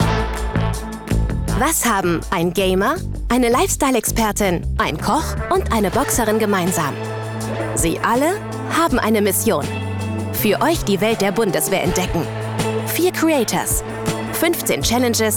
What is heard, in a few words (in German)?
funkspot; explorerserie